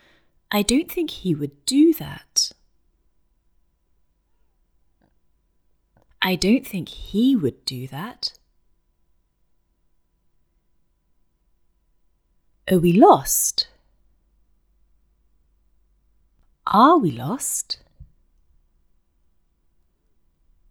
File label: Russian, British accent